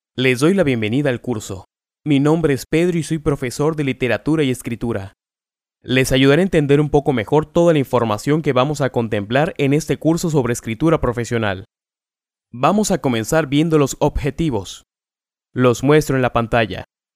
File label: locutor mexico mexican voiceover; locutor mexicano